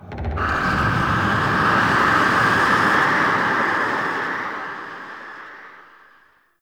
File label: Sentient Vessel Delay Pain; Warframe; Tau